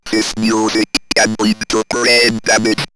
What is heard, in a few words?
Robot Brain Damage, robot vox; X-Static Goldmine